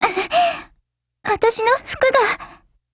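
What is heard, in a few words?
BREAK Japanese, Voice; GF Alarm; Ak5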